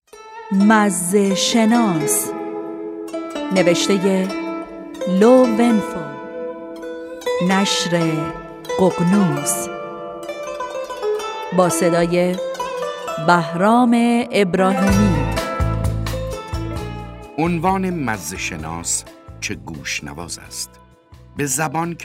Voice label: announce